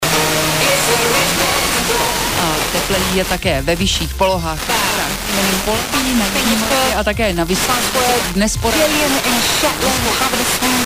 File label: czech